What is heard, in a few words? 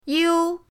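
"you1", Chinese pinyin; pinyindct-open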